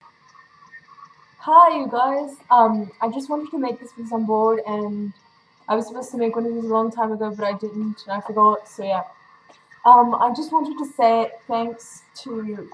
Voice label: um my voice cracked at the beginning